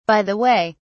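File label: "by the way", English, British English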